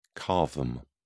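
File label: "cavum", British English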